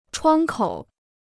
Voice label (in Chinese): "窗口", Chinese